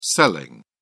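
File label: "selling", British English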